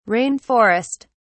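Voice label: "rain forest", British English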